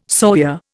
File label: "sawyer", Indian